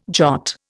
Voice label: "jot", Indian